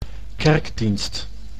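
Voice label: "kerkdienst", Dutch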